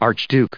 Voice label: "archduke", us